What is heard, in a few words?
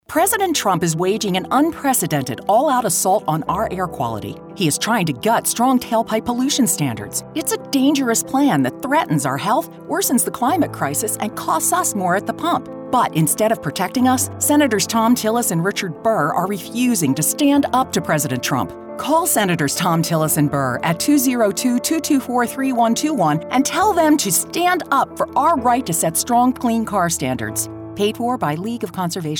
Radio Ad; Clean Cars